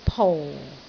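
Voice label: "pou4", Cantonese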